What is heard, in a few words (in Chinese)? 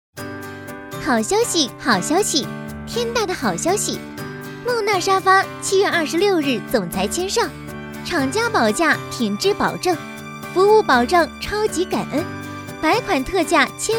女 沙发 甜美; 梦娜沙发